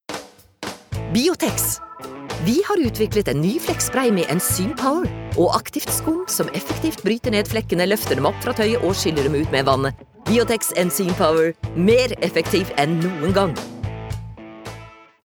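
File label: no hard sell, Norwegian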